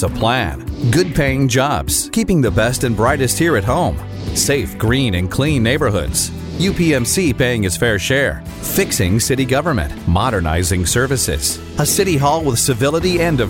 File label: Democrat, Political, Male Voiceover; Male Democratic Voices; Voice actors with deep experience, pro home studios and Source Connect.